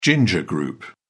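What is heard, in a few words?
"ginger group", British English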